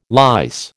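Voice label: "lice", American English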